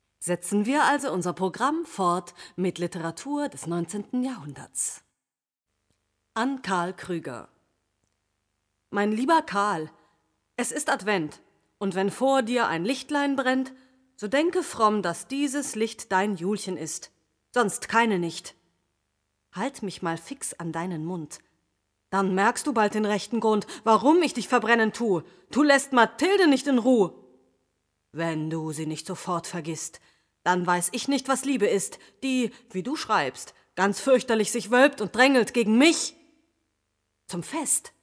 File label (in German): sprecherin; Anmoderation